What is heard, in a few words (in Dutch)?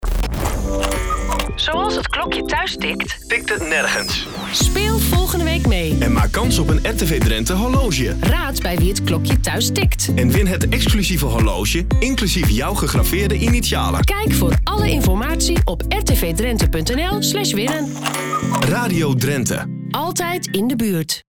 PROMO HORLOGE TEASE; ZBA